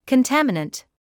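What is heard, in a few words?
"contaminant" English, British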